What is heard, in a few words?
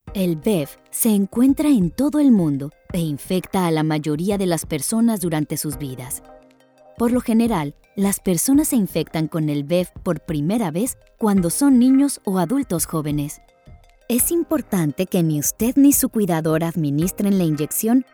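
Spanish Voice Over, Medical; eLearning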